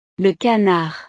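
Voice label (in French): "le canard", French; el pato; animales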